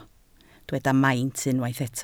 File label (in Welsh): "maint", Welsh